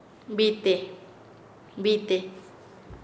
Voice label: Nasa Yuwe; paez